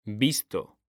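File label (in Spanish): "VISTO" Spanish, male; mekanta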